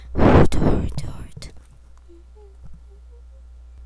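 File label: "hold", spoken word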